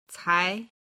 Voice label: "cai2", Chinese; HSK3級

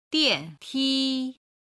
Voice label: "dian ti", Chinese

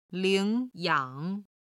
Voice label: "ling3yang3", chinese

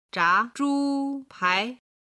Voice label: "zha zhu pai", Chinese

